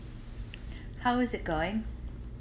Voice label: "How is it going" USA